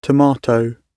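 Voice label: "tomato", British English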